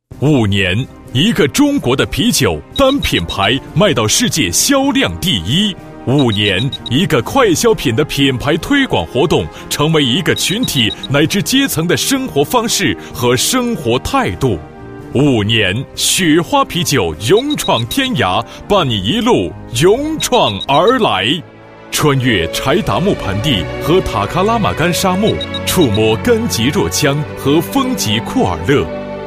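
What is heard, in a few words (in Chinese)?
专题大气激情啤酒; 雪花啤酒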